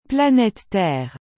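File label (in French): "planete Terre", French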